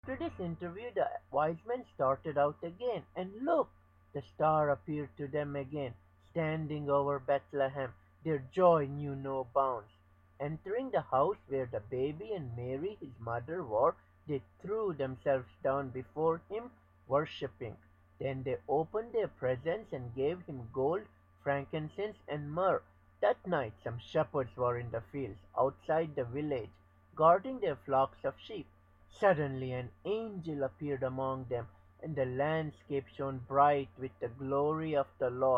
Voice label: Christmas Story Narration